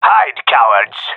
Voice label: round winning, male

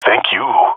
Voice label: thankful, jungle male epic; Source Model Packs; Counter Strike Model Pack; CS2

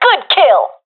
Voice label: sees enemy killed, professional fem; vo; Counter Strike Model Pack; CS:GO

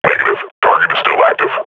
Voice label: combat idle, grunt

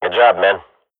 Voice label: radio bot end solid, swat; Counter Strike Model Pack; CS:GO

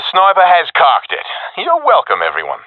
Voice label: i killed sniper, professional epic; vo; Counter Strike Model Pack; CS:GO

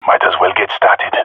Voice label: attacking, jungle male epic; player vo; Counter Strike Model Pack; CS:GO

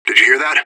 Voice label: radio bot hear; agents; fbihrt

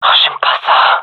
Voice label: scared, gendarmerie fem

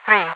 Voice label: "three", radiovoice; Half Life 2

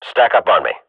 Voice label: radio bot follow me, swat; Counter Strike Model Pack; CS:GO

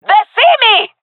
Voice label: taking fire, jungle fem; vo; agents; Source Model Packs; Counter Strike Model Pack; CS2; jungle fem epic

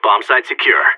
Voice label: bombsite clear, seal, player vo; Counter Strike; CS:GO

